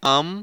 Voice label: "am", vowel

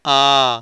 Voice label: "aa", vowel

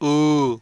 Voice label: "oo", vowels

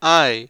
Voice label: "ai", vowels

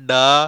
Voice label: "dda", consonants

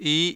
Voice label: "i", vowel

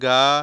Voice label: "ga", consonants

